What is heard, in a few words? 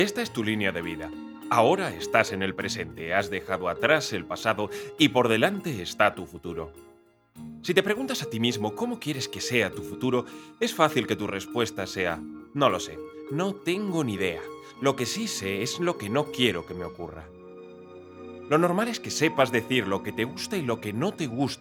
Educational Video